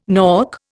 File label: "nork", Indian